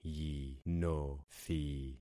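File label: "yinothi", concatenated syllables; Affixing experiment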